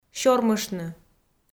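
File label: "сёрмыштны" Komi, female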